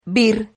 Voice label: "vir", Silabas

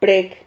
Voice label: "preg", Silabas

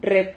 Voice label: "rreb", Silabas

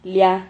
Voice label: "lia", Silabas

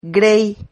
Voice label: "grey", Silabas

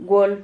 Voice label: "wol", Silabas

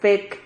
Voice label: "fec", Silabas

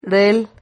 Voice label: "rel", Silabas

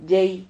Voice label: "llei", Silabas

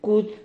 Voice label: "cud", Silabas

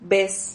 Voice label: "ves", Silabas

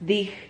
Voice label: "dij", Silabas

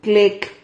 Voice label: "clec", Silabas

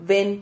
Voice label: "ven", Silabas

